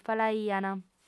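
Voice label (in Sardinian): "falaiant", female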